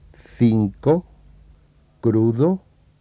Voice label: spansk cinco crudo, spansk [ˈθ̟iŋko ˈkɾuð̟o]

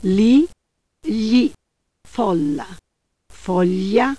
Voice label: italiensk li gli; [li ʎi ˈfɔl:a ˈfɔʎ:a]

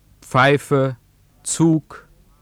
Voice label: tysk Pfeiffe Zug, tysk [ˈp͡fɑjfə t͡su:k]

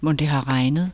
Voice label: dansk stemt h; [mɔn d̥e ɦɑ ˈʁɑjnð̩]

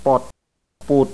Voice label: assamesisk; assamesisk [pɔt pɔ̹t]

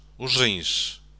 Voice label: portugisisk os rins; [ur̝ĩʃ]

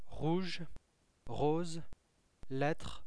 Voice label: fransk rouge; [ʁu:ʒ ʁo:z lɛtʁ̥]

